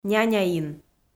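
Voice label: "няняин", Komi, female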